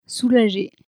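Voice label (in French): "soulager", French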